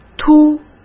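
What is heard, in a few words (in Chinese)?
"秃", Mandarin Chinese; hsk; chinese-flashcards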